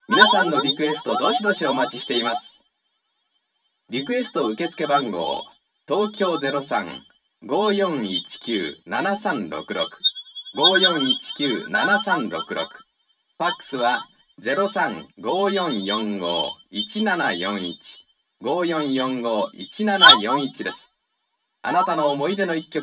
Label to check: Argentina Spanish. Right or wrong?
Wrong